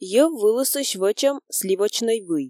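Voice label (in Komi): "йӧв вылысысь вӧчӧм (сливочнӧй) вый", Komi, female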